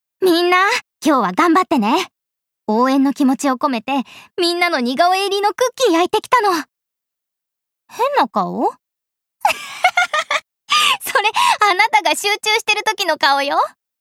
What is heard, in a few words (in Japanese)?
高校生